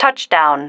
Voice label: "touchdown", female

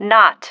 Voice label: "not", female

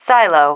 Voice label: "silo", female

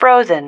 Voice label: "frozen", female

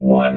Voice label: "one", robot vox

